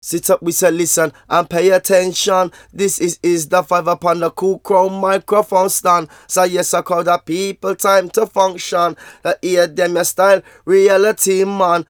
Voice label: Vocals; Jungle Frenzy 1